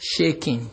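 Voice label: "shaking", Nigerian Pidgin, male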